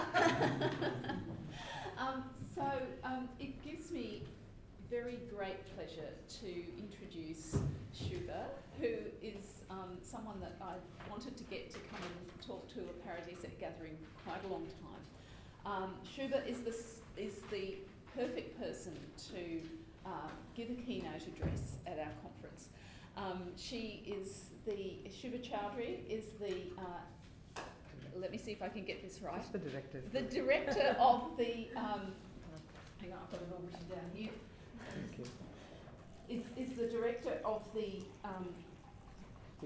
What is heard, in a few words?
Recording of presentation; The Archives and the Community